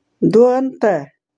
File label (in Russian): "Дуэнтэ", ульчский; транскрипция [‘дуэнтэ]; Тайга